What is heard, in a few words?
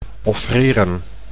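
"offreren", Dutch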